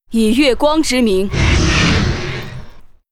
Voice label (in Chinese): Hippogryph with rider warcry